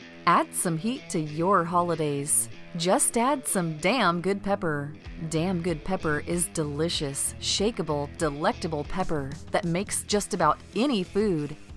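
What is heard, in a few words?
commercial, English US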